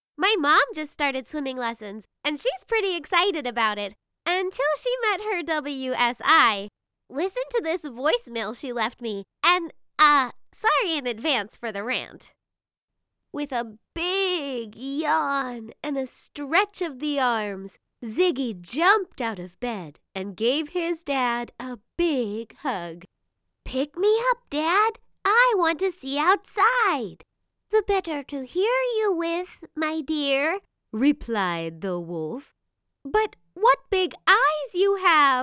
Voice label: Story Characters

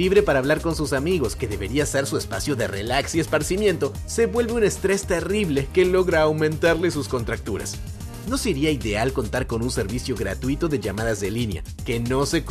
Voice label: Internet Video Demo NEUTRO

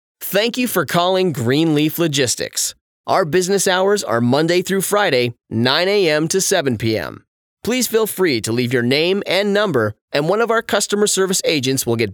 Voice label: Phone; Green Leaf

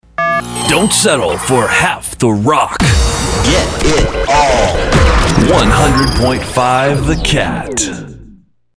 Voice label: Radio Voiceover

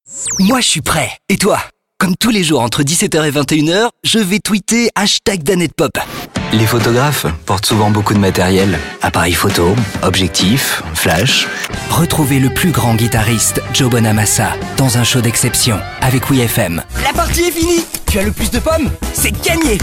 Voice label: French voice over Commercial

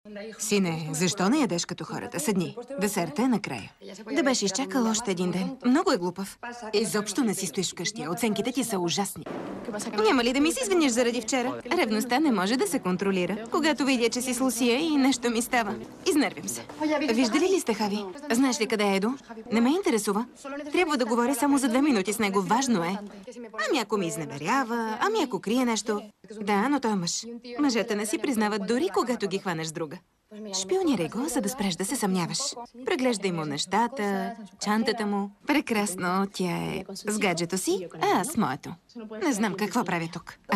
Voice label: dubbing Movie characters

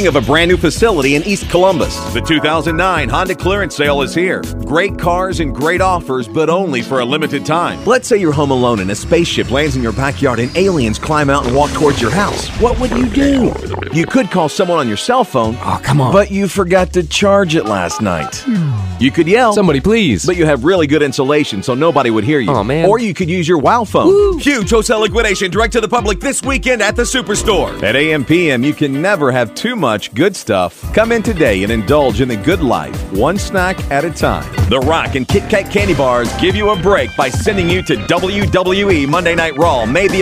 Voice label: Radio Production

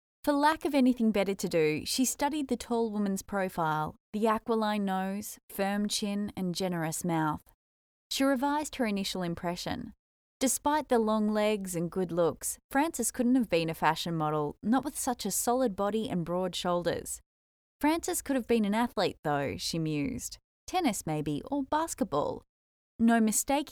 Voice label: Audiobook natural

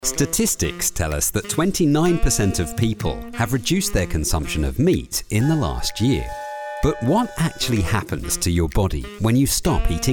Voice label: uk narration

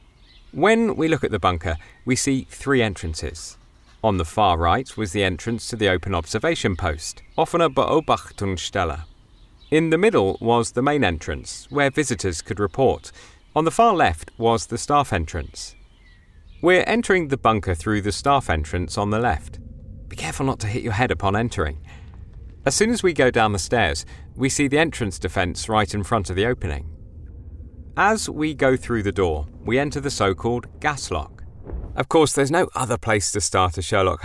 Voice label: Audioguide

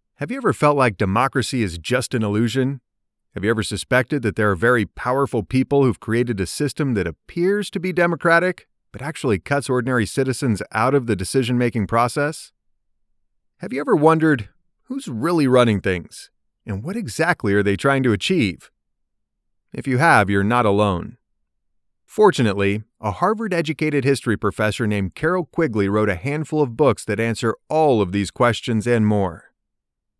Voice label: Audiobook Non Fiction

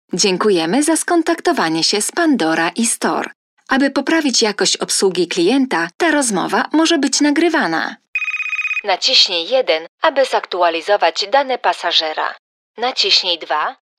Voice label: IVR

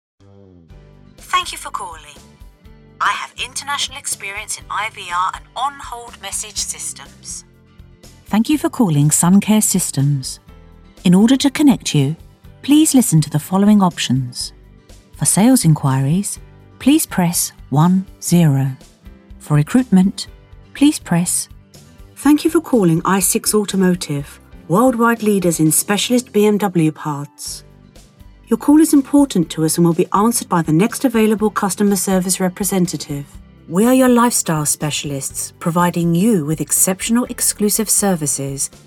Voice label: Lovely Voice IVR

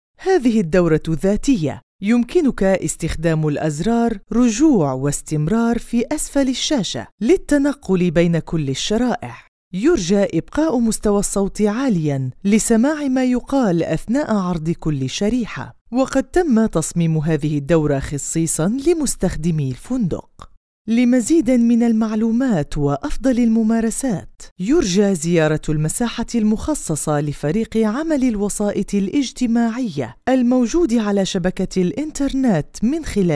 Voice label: Online Course

